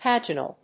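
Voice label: "paginal", English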